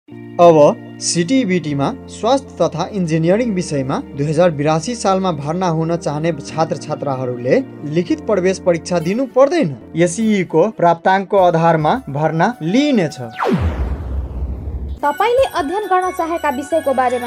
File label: NEPALI; NTI CENTRAL COLLAGE